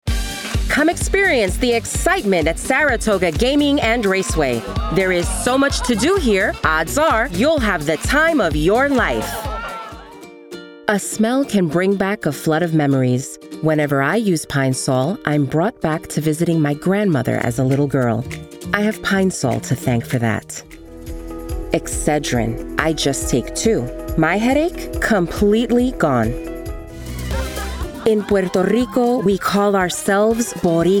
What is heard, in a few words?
English Commercial, With a young, vibrant sound; English Commercial Demo